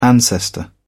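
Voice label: "ancestor", English, British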